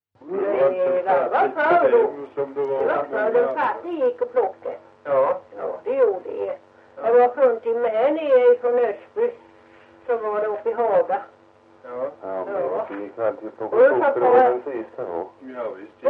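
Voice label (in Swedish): Ventlinge Öland, dialekter; Folkminnen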